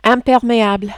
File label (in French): "impermeable", French